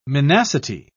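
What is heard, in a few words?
"minacity", British English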